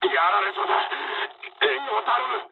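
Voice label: aircraft damaged mood low, speech japanese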